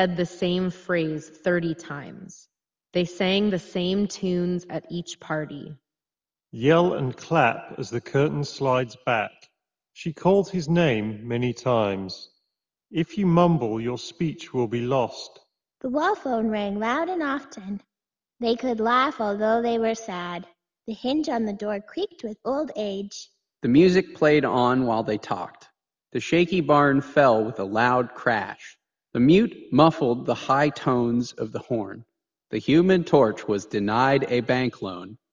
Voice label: HS65 Wireless Reverb microphone sample; Corsair